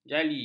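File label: "jali", Malay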